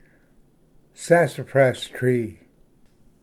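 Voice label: "sassafras tree", English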